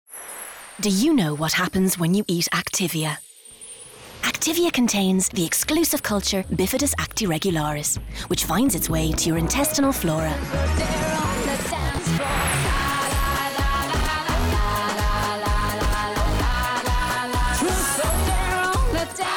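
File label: voiceover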